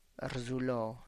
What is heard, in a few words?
"rrzulo", start, tlacochahuaya